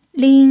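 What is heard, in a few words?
"lin", Mandarin Chinese pinyin, tone 1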